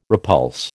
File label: "repulse", American